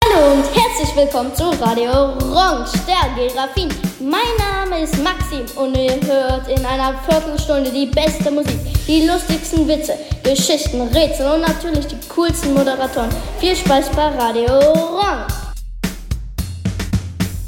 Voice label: jingle